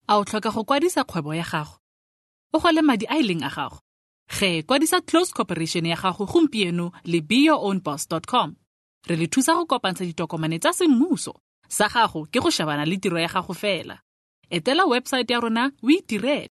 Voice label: Setwana Corporate